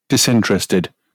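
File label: "disinterested", British English; pronunciations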